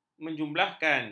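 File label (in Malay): "menjumlahkan", Malay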